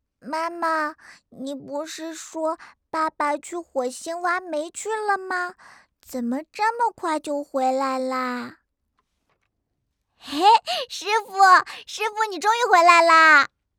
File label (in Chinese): 萝莉